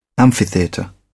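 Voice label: "amphitheatre", British English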